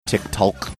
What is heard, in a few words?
saying tick talk funny